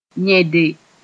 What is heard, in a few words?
"yẽde", moore; do in order to